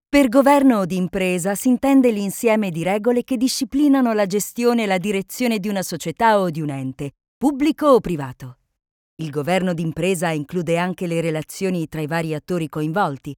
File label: female, Italian e-learning